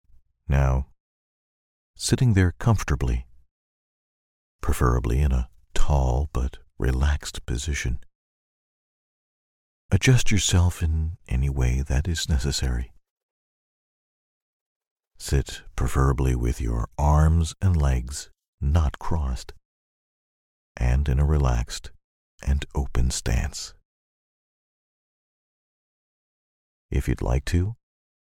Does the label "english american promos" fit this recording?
No